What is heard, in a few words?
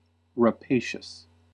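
"rapacious", US English; pronunciations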